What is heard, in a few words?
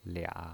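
"lia", pinyin, Mandarin Chinese, third tone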